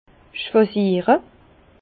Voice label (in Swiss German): "schwasiere", Bas Rhin; vin; choisir